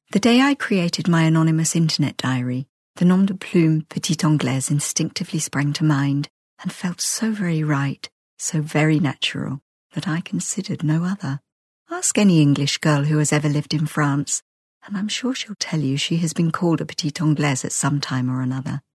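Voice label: English female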